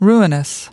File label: "ruinous", us